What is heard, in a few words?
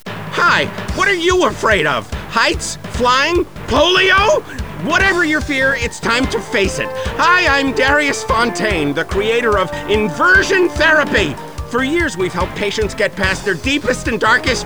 commercial